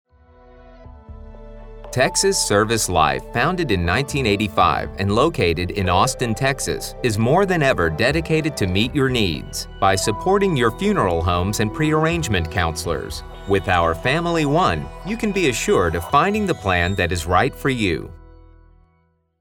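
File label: Commercial, voice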